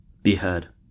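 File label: "behead", US English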